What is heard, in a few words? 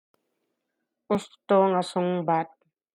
Ilocano